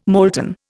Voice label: "molten", Indian